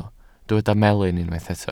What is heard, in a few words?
"melyn", Welsh